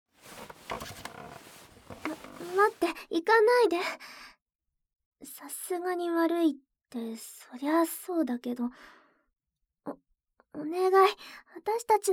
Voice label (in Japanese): エリナのささやき耳舐め誘惑手コキ♪（えっちSEなし版）